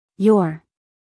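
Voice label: "your", American English, female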